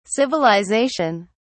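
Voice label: "civilisation", British English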